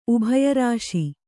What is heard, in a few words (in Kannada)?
"ಉಭಯರಾಶಿ", Kannada